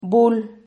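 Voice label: "bul", Spanish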